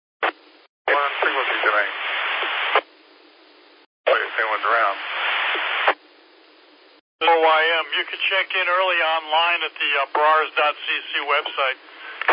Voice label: qso rec simplex logic